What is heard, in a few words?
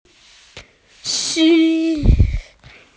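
"nv3", Mandarin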